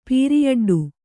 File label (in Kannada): "ಪೀರಿಯಡ್ಡು", Kannada